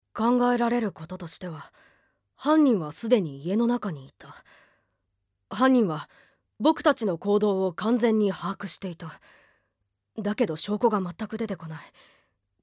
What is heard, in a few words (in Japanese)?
アニメ